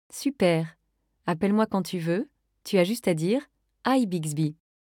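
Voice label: wake up succeed French; Bixby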